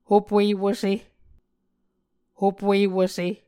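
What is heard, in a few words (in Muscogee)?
"hopuewuse", Muscogee word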